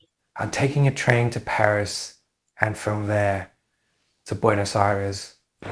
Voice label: Voice Acting, es